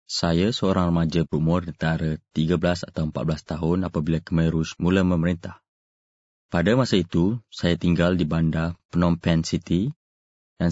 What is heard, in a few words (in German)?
Sprecher Malaiisch; Nachvertonung Film